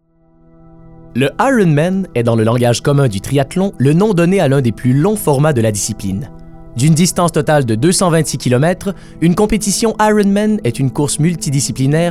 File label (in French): narration; gchampagne agence artistique